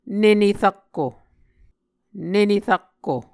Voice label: "nene rakko", Muscogee; Mvskoke Words with Repeated Consonants; A Dictionary of Creek/Muskogee; SIL International

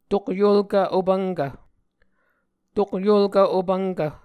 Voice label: "tokyolkv opvnkv", Muscogee

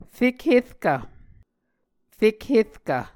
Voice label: "fekherkv", muscogee

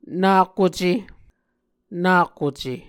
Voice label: "nakuce", Muscogee

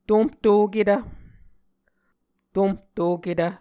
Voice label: "tomhtoketv", Muscogee

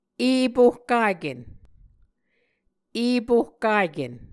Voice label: "epohkaken", Muscogee; Mvskoke Words with Voicing Consonants; A Dictionary of Creek/Muskogee; SIL International

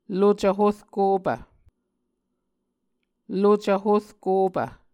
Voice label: "lucv horkopv", Muscogee

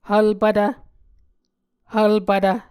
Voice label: "hvlpvtv", Muscogee

